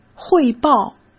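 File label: "汇报", Mandarin Chinese; hsk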